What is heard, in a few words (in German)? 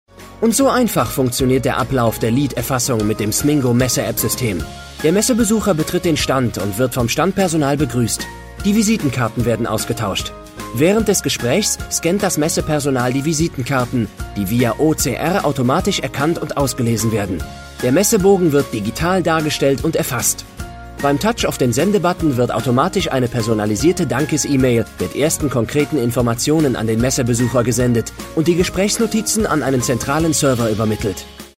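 commentary, Neutral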